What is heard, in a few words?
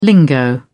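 "lingo", British English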